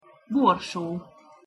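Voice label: "borso", Hungarian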